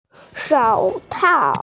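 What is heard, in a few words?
"shoutao", Mandarin Chinese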